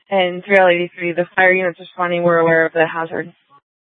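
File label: police dispatch, Scottsdale PD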